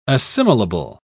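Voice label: "assimilable", British English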